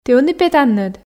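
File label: "ті ӧні петанныд", Komi, female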